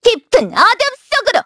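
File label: Vox Madness, Korean; voices; heroes; Xerah; kingsraid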